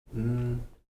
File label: "n", Heiltsuk